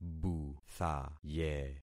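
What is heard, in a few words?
"buthaye", concatenated syllables; Affixing experiment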